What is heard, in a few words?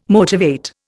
"motivate", Indian